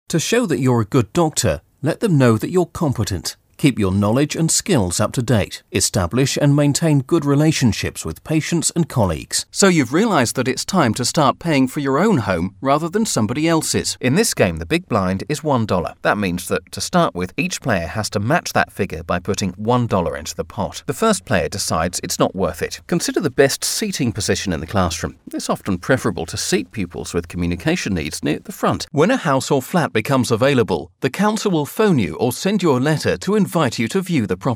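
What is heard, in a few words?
English British male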